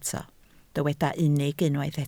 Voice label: "unig", Welsh